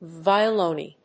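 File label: "Violone", English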